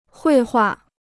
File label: "绘画", Chinese